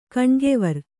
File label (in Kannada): "ಕಣ್ಗೆವರ್", Kannada